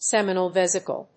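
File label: "seminal vesicle", English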